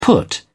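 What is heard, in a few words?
"put up", British English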